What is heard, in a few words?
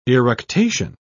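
"eructation", British English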